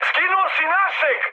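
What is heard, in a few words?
Serbian player killed ally mood high, speech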